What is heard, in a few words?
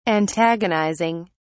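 "antagonizing", British English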